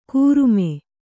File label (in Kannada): "ಕೂರುಮೆ", Kannada